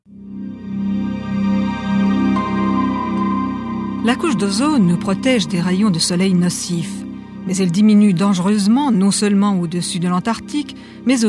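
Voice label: French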